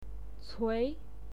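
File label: "cui", Mandarin Chinese, second tone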